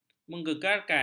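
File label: "menggegarkan", Malay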